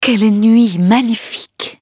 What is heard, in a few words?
victory, fr; cookie run